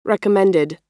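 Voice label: "recommended", US English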